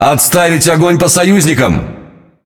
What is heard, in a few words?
Ru Speech Friendly Fire Hit Alert; World Of Warships